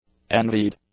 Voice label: "envied", English; adjectives